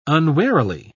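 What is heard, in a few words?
"unwarily", English, British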